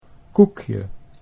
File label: "koekje", Dutch